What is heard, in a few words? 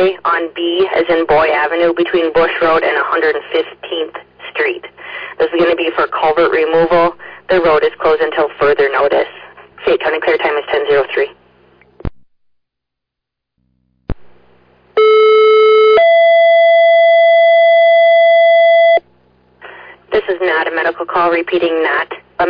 Fire, dispatch